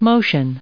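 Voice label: "motion" English, American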